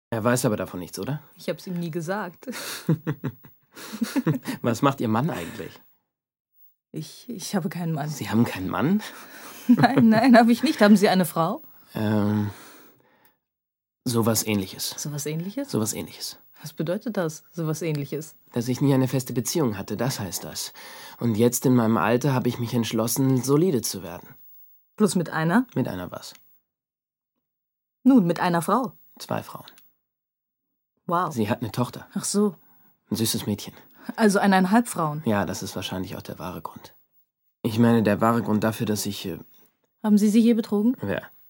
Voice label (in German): synchrondialog